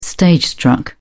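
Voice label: "stage-struck", British English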